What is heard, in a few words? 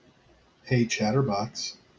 hey chatterbox, en us